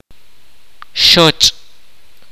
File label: kaqchikel; witch